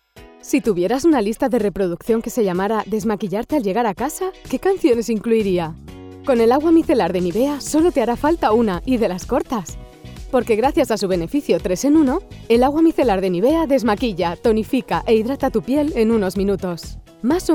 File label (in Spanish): ES Radio